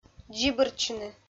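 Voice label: "дзибыртчыны", Komi, female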